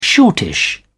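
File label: "shortish", British English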